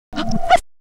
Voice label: Female; 90 sSampleCDs; Coldcut's Kleptomania VOL1